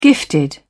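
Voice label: "gifted", British English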